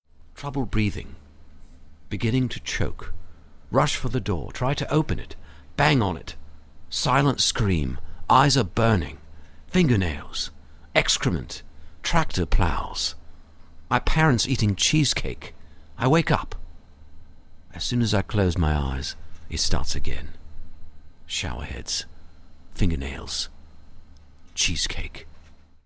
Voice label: Classy brit; Dodge "Drive Into Summer"